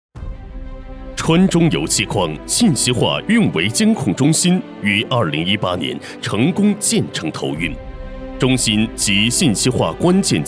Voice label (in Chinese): 汇报 浑厚大气